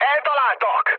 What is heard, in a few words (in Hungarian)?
aircraft damaged mood med, hun; War Thunder